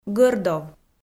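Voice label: "гӧрдов", Komi, female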